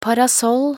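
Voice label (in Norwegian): "parasoll", Norwegian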